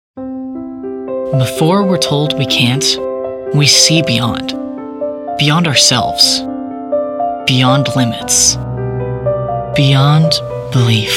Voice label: commercial